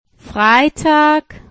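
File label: "Freitag", German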